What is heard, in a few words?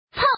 "peng", woman, mahjong; ningxia